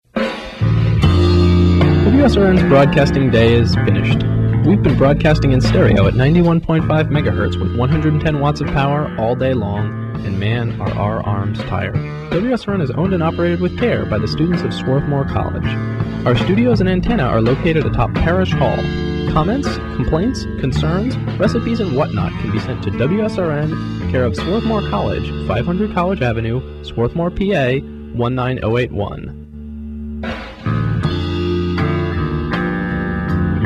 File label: vintage call signs sign off